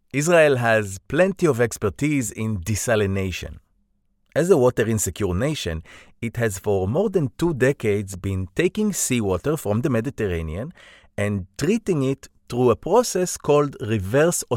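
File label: Hebrew, Accented English Thicker accented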